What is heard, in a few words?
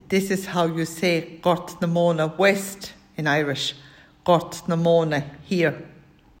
Gortnamona west, M; townlands